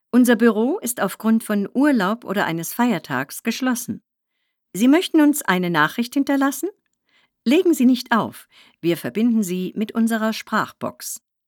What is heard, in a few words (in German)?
Buero wegen Urlaub geschlossen, Anrufbeantworter Telefonansagen; Telefonansage "außerplanäig geschlossen ..."